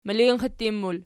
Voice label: "milinxittin", Archi

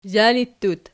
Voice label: "zhanittut", Archi

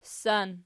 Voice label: "ssan", Archi

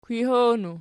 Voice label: "kwihoonu", Archi

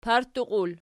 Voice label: "partuqi", Archi; pl var

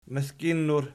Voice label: "mizginnur", Archi

